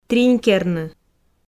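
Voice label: "тринькерны", Komi, female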